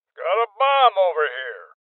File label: Voices Bomb Spotted Male Old; rebel cops 2019